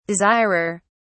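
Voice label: "desirer", British English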